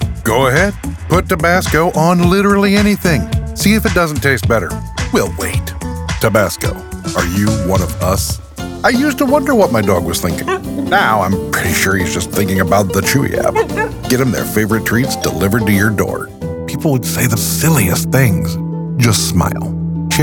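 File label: Advertising, voice over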